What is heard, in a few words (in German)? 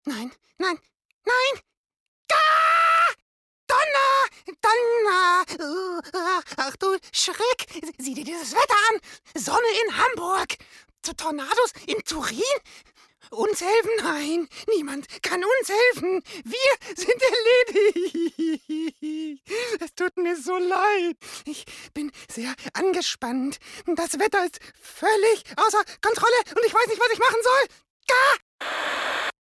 charge - trickstimme